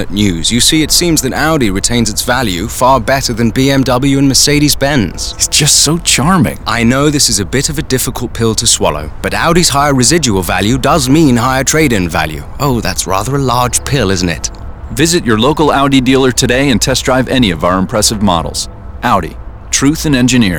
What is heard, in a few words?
British